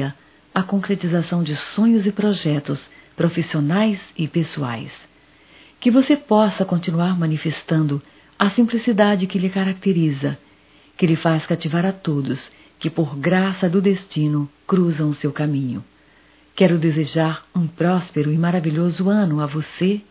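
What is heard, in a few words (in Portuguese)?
Ano novo neutro female; Natal & Ano Novo; Telemensagens 2017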